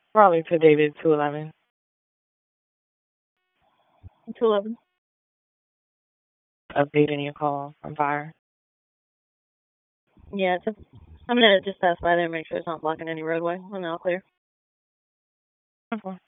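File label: Police Northeast District Dispatch, Raleigh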